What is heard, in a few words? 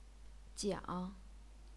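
"jiang", Chinese, third tone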